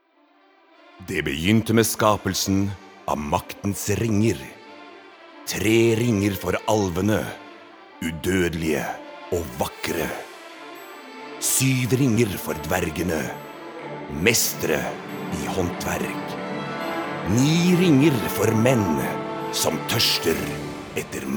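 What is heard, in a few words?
Norwegian movie trailer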